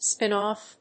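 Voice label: "spin off", English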